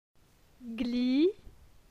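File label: "Gli", French; phonetique; la syllabe i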